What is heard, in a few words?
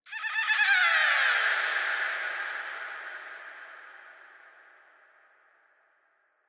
Witch; DoughnutQuest